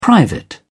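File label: "private", British English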